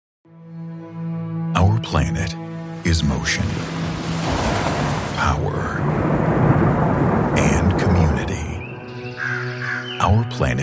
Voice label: english american promos